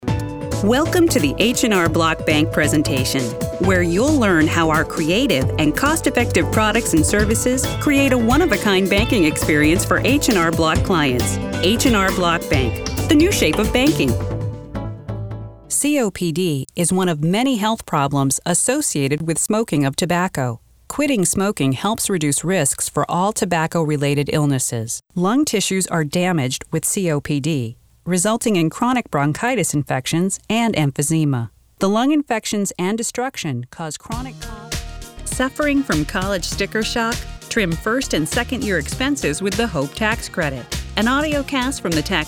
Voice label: Corporate Narration

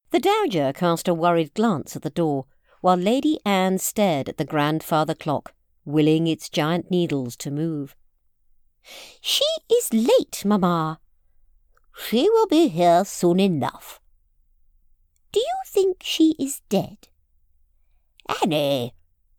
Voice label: Audiobook sample

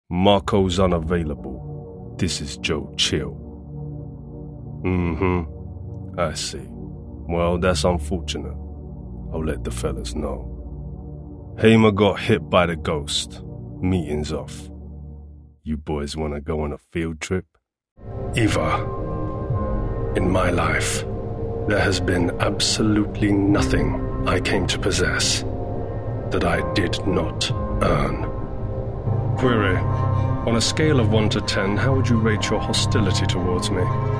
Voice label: Character Reel

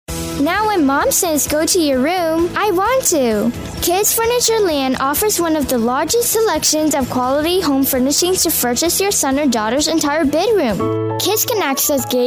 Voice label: voice over

